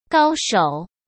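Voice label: "高手", Mandarin Chinese; hsk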